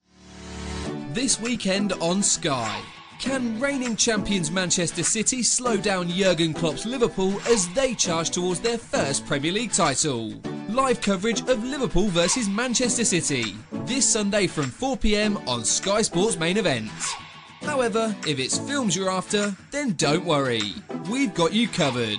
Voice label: english male; 英语配音员（男5）